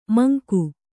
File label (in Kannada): "ಮಂಕ", Kannada